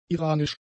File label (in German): "iranisch", german; adjectives